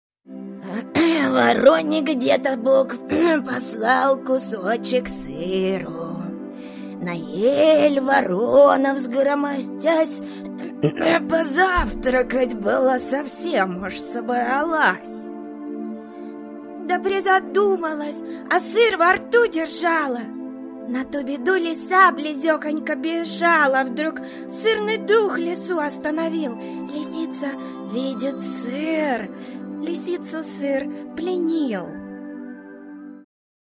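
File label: character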